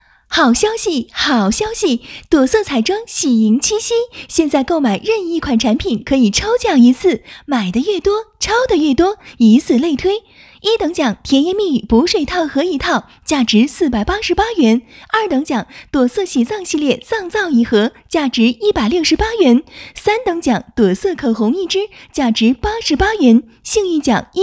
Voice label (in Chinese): 广告促销